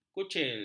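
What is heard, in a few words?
"kucil", Malay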